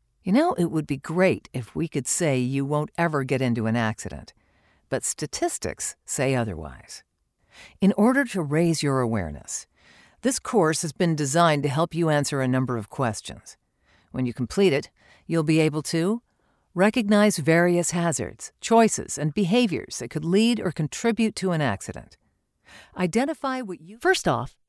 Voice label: E-Learning